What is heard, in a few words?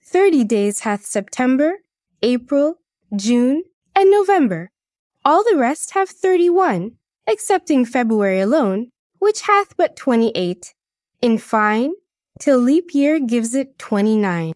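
female US, classic rhymes; Thirty Days Hath September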